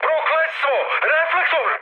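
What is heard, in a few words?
Serbian searchlite mood high; War Thunder; speech srb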